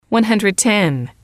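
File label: "one hundred ten", English, female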